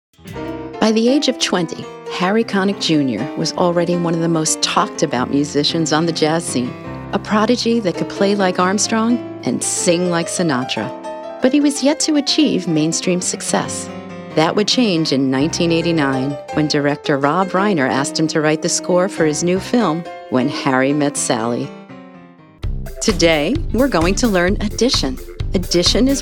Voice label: Narration Demo Reel